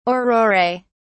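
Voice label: "aurorae", British English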